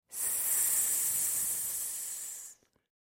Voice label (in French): Ssssss, LES ONOMATOPÉES; Ssssssss...